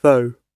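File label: "though", British English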